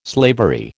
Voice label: "slavery", American